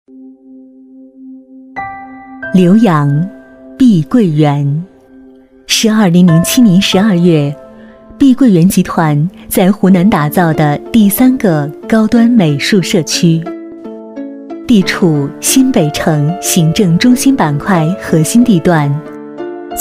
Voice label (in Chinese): 女 专题, 国语女声配音, 磁性; 浏阳碧桂园